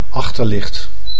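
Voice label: "achterlicht", Dutch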